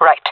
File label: affirmation, jungle fem; vo